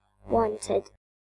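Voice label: "wanted", British English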